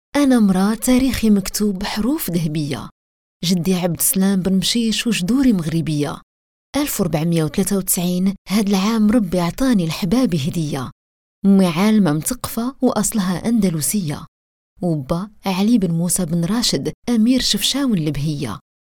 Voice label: locutora arabe marruecos arabic moroccan female voiceover